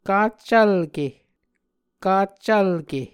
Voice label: "kaccvlke", Muscogee; Mvskoke Words with Repeated Consonants; A Dictionary of Creek/Muskogee; SIL International; Onvkv Ohhvyayices